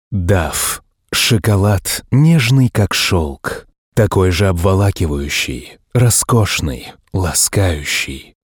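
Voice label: Russian softsell, male; russisk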